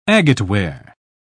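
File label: "agateware", British English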